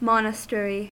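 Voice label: "monastery", US English; pronunciations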